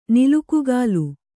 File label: "ನಿಲುಕುಗಾಲ್", Kannada; nilukugālu; noun